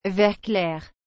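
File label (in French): "vert clair", French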